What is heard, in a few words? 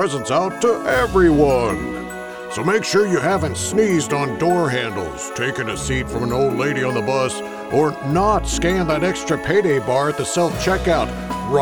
Santa Message